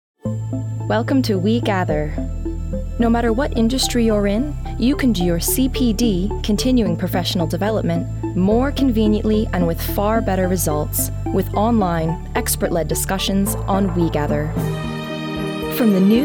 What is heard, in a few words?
Voiceover Reel